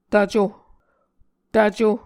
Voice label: "tvco", Muscogee

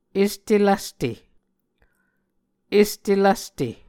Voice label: "este lvste", Muscogee

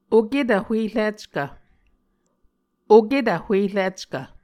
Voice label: "oketv huerickv", Muscogee

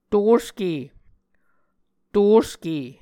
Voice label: "toske", Muscogee

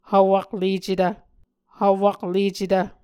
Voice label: "hvwaklecetv", Muscogee

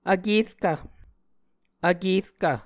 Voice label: "vkerkv", Muscogee

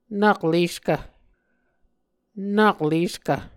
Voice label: "nakleskv", muscogee

